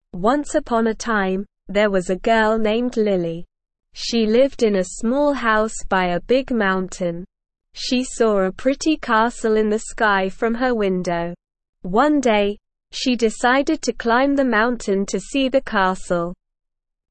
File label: ESL Short Stories for Kids Beginner SLOW Reading; The Castle in the Clouds